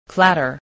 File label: "clatter", spelling